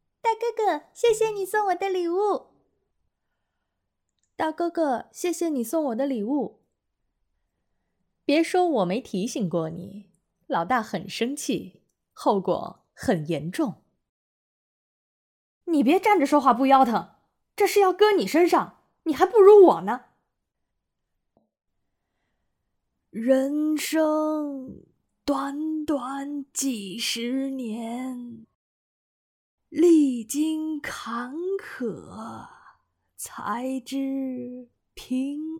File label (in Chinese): 萝莉正太青年女声中年大妈老人女